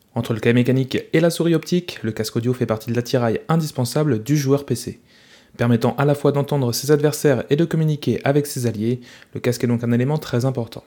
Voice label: sennheiser profile soundtest

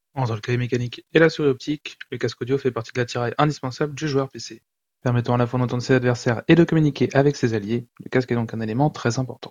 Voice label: corsair hs55 wireless soundtest

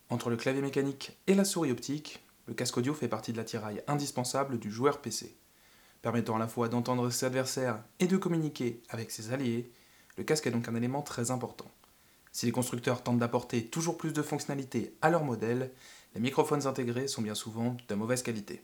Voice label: logitech g yeti studio distance

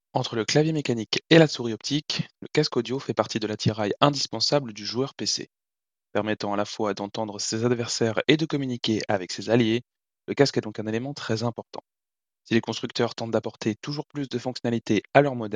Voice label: sony inzone h5 soundtest

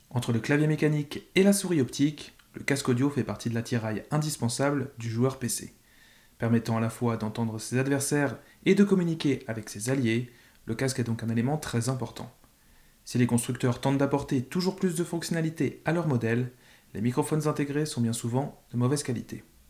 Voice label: MVX2U SM7DB 30cm

